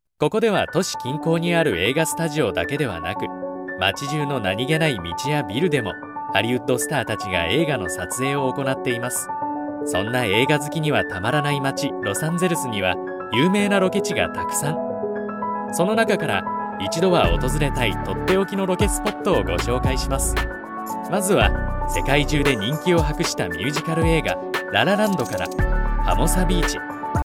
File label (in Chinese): 日语 男声 老师; 日语男声号老师